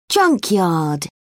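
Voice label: "junkyard", British English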